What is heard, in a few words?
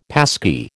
"passkey", American